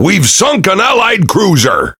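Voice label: Pirate Speech Destroy Ally Cruiser, English pirate; World Of Warships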